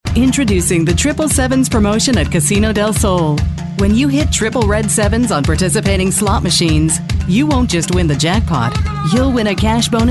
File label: Hard Sell